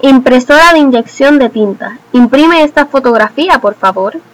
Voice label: inkjet printer desc es; tuxpaint-stamps; household; electronics

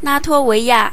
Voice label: "latvia", zh_CN; gcompris; geography

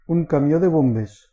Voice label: fire engine desc, ca; vehicles; emergency; cartoon; tuxpaint-stamps

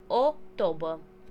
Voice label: drum tomtom floor desc, ro; percussion; music; tuxpaint-stamps

